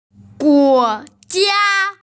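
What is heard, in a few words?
"guo jia", Mandarin Chinese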